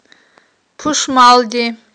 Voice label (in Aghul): "пушмалди", aghul